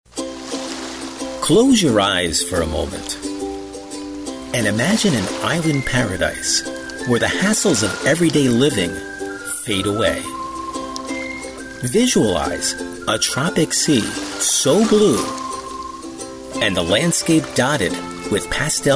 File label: commercials